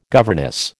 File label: "governess", American